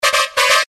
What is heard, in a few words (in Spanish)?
chileno nervioso